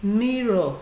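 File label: "mirror", Nigerian Pidgin, female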